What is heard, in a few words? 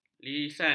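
"lisan", Malay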